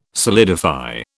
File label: "solidify", British